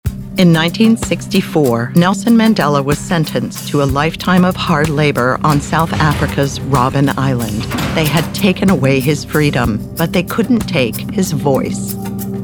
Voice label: 50s Female Narration